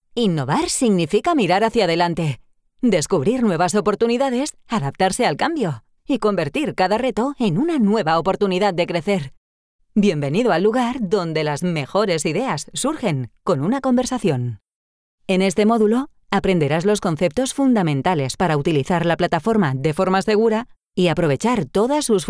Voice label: Spanish VO Dinamica